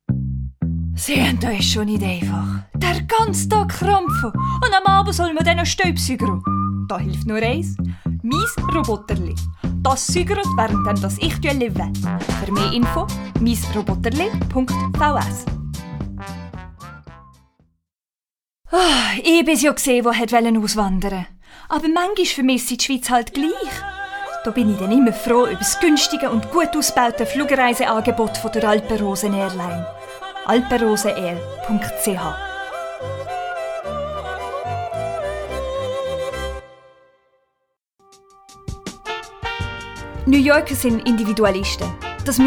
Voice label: Swiss German Dialects